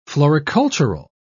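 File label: "floricultural", British English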